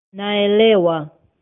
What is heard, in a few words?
understand, swahili